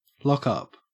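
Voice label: "lockup", Australian English; pronunciations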